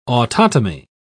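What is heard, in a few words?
"autotomy", English, British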